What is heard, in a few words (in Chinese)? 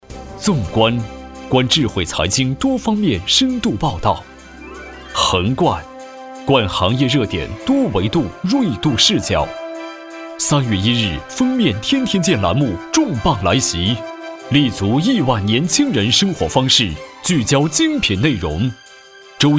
男 栏目片花 配乐; 纵观